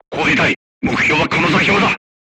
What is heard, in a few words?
voice message art command, crew tanks japanese